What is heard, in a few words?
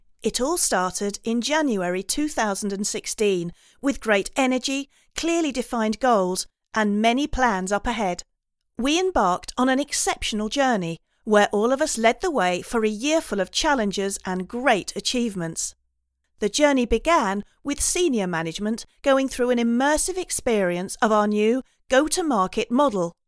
Corporate annual presentation